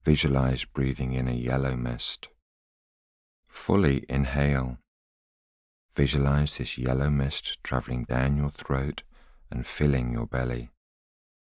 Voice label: voiceover